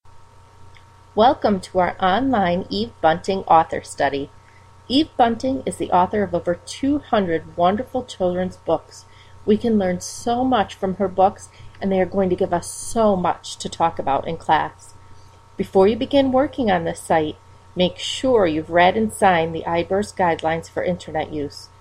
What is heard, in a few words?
podcast